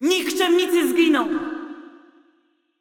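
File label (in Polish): assist, Polish; Kayle; bloom minigame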